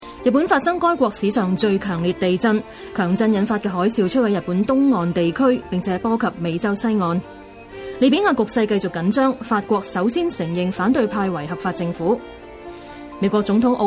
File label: cantonese